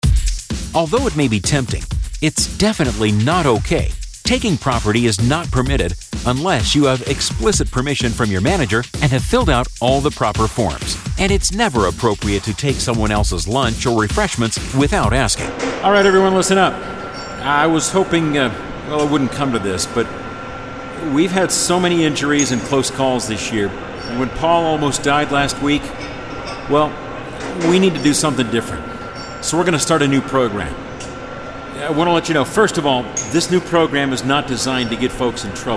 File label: E-Learning